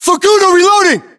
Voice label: US inform reloading, battlechatter, english

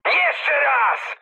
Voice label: bomb failure mood high, speech pol; War Thunder

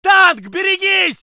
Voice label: voice sov infantry

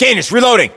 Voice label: US inform reloading, battlechatter, english; localized english

